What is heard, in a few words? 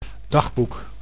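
"dagboek", Dutch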